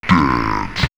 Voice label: "Dance", house vocals; X-Static Goldmine

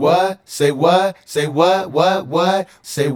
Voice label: Male Vocal

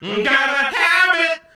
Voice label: Male FX Vocals; Houseworx

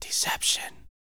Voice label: TALK, Vocal, SCI-FI; 5 SCI-FI CHZ

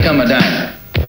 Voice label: "come dance", vocal

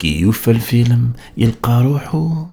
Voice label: POET, POET VOICE, Arabian Traditions

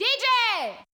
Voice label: DJ, Yamaha DJX 2B

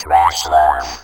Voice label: TRASH LOVE, Funky Vocals; USB Soundscan vol.18; AKAI; COMPUTER

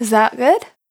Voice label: "Good", Vocal Samples, Words

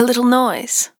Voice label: "A Little Noise", Vocal Samples, Words

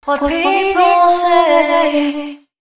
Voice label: female 130, Dance Ultra Voice, short hooks; Mutekki Media; Dance Ultra Voice VOL-1

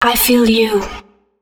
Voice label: female, spoken hooks

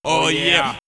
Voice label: "Oh Yeah", rap vocals; X-Static Goldmine

